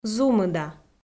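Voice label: "зумыда", Komi, female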